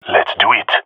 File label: agree, jungle male; agents; jungle male epic